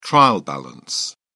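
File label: "trial balance", British English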